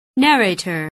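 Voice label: "narrator", English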